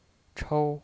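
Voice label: "chou", Mandarin Chinese pinyin voice, first tone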